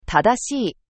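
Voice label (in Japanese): "tadashii", Japanese; N4 level words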